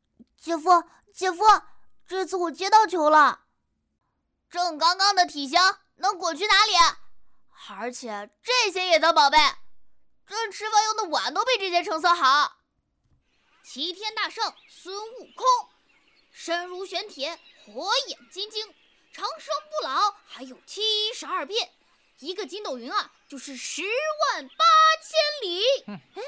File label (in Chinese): 角色音 正太音